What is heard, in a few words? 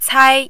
"cai1", mandarin